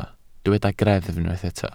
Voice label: "greddf", Welsh